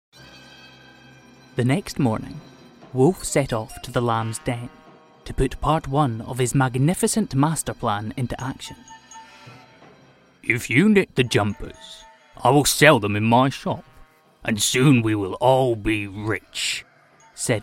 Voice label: male english scottish children s audiobook narration